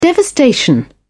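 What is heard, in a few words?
"devastation", British English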